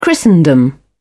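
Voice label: "christendom", British English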